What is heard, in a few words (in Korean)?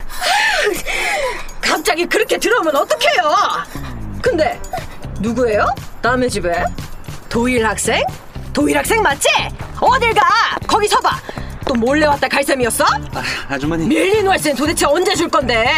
오디오드라마 억센 아줌마 30대 신경질; 스푼